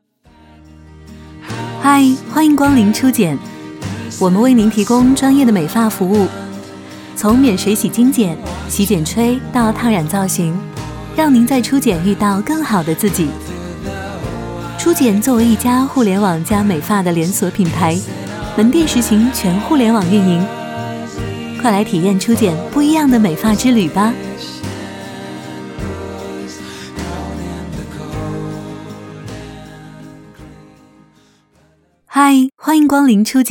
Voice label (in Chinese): 女 自然的轻快欢迎词